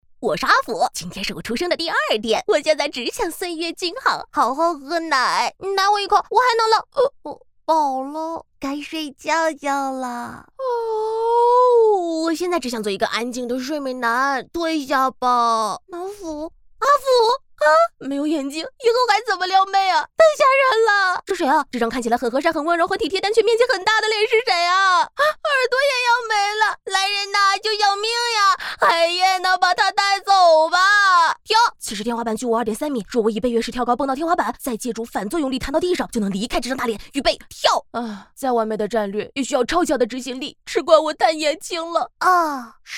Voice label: 女 婴儿的想法

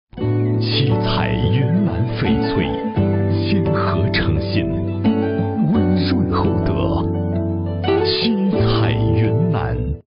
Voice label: 男 宣传片; BTV; 七彩云南翡翠

